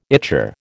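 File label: "ichor", American English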